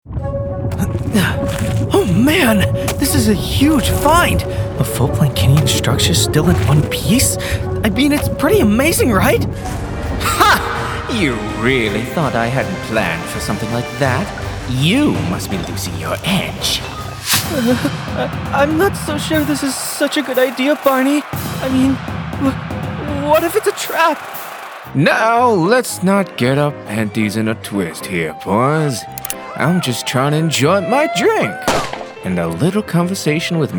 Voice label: Character